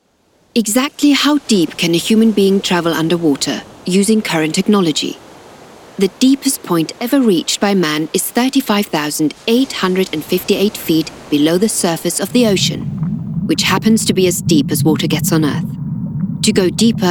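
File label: narration, voiceover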